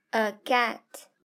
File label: "cat", english; grade3; fun with sentence